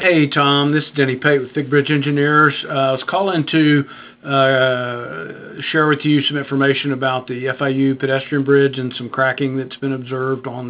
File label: voicemail